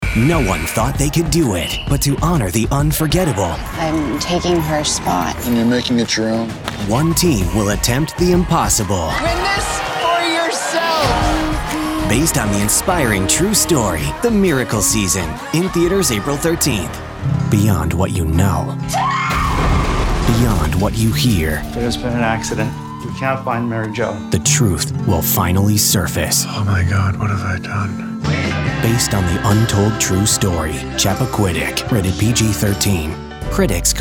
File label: TRAILER